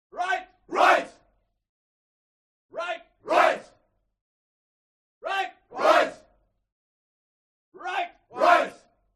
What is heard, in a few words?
HUMAN CROWD Military Shout English "Right"; smartsound